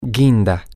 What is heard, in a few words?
maroon M, spanish